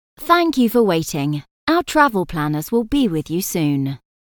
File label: Basic Waiting Message